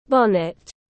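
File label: "Bonnet", English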